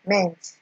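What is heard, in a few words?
"ment", Nigerian Pidgin, female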